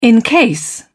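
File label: "encase", British English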